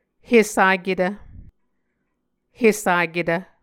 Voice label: "hesaketv", Muscogee

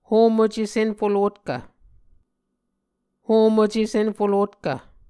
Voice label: "homuce senfolotkv", Muscogee

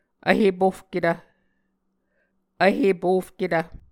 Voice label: "vhepofketv", Muscogee

